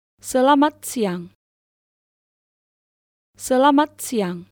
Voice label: Good afternoon Indonesian

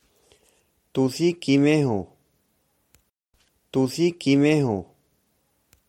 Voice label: How are you, punjabi

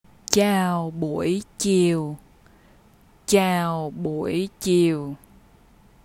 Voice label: Good afternoon vietnamese; Greetings

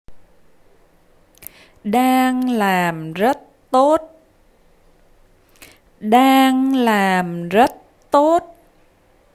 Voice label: (Name) is doing very well, vietnamese; Appreciation

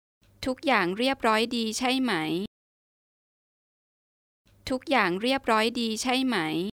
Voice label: Is everything fine Thai

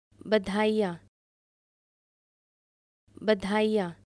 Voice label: Congratulations Hindi

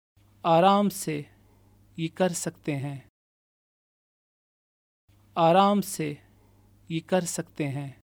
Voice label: Take it easy! can do it, Urdu, male

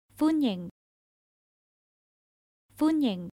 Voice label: "Welcome", Chinese

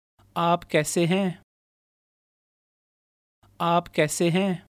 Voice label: How are you, Urdu, male

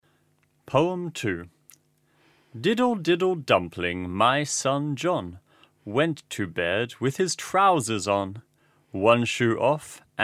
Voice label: Poem; poems and songs

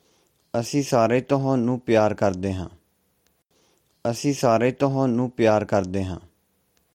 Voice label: We all love you, punjabi

